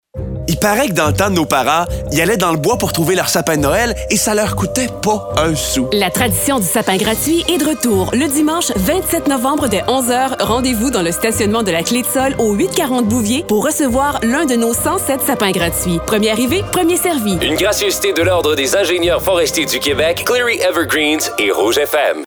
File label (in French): Promo; Stunt Sapin; Fil en aiguille